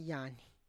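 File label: "yany", Tlacochahuaya Zapotec; neck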